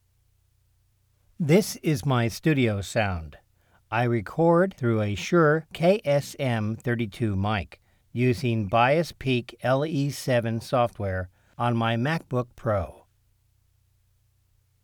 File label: Studio Sound